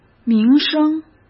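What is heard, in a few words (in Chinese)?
"名声", Mandarin Chinese; chinese-flashcards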